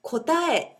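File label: "kotae", Japanese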